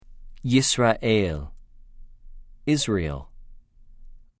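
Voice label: "יִשְׂרָאֵל", Hebrew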